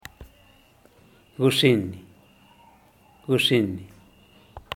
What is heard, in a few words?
"guxinni", noche, zapoteco teotitlan